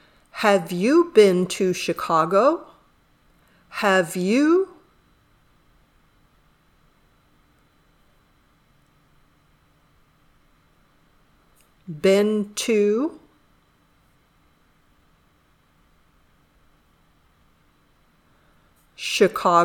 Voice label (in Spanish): Dictado